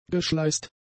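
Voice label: "geschleißt", German; adjectives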